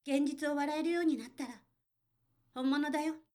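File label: female; 高音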